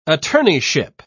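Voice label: "attorneyship", English, British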